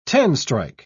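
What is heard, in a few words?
"ten-strike", British English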